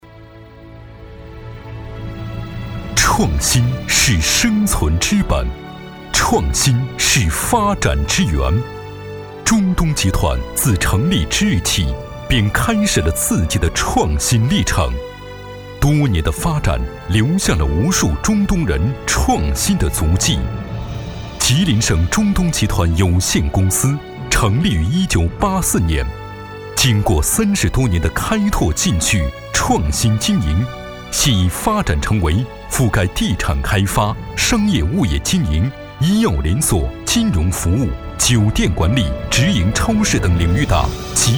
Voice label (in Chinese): 男 专题; 中东集团